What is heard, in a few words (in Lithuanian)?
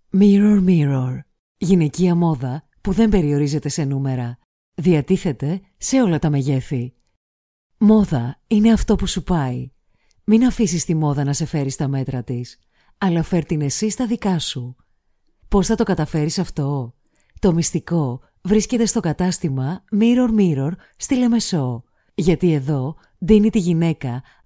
MOT Graiku balsas, moteris